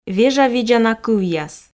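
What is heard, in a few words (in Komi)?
"вежавидзана кывъяс", Komi, female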